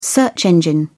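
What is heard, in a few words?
"search engine", British English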